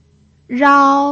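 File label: "rao", first tone, Mandarin Chinese pinyin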